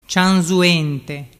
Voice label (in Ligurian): "cianzuente", dialettu